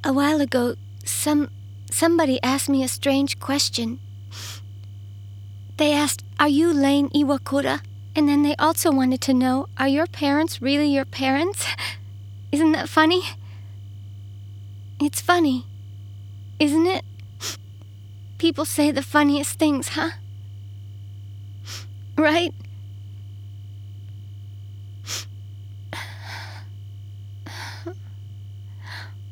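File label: Real parents, English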